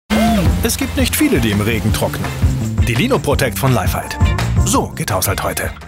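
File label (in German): Werbung, Freundlich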